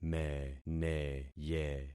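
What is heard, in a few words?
"meneye", concatenated syllables; Affixing experiment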